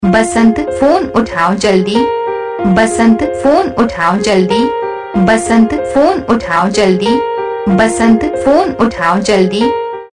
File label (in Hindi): phone uthao jaldi saying, Ringtones